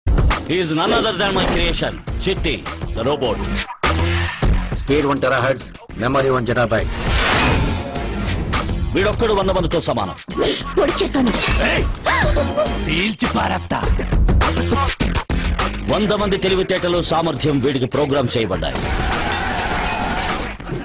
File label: Robo Dialogue